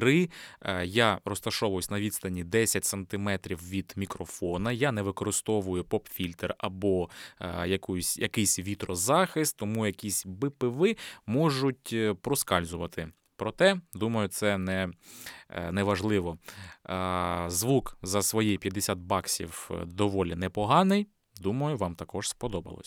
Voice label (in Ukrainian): audio technica at2020 test golosu; Тест Голосу